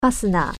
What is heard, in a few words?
"zipper", Japanese